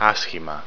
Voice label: Bad, Greek